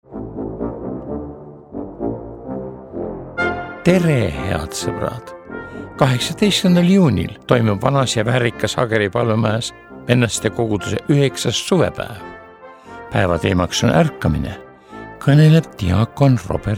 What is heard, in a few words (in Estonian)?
reklaam; Vennastekoguduse suvepaevad 2022 18 juuni